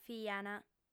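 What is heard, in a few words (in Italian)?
"fiant", lingua sarda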